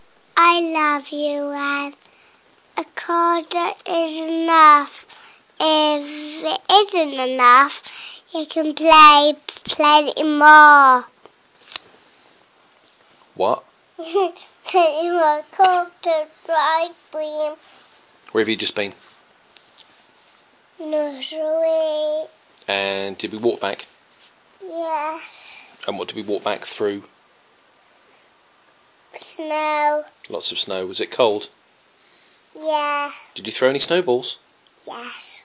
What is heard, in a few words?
talking absolute gibberish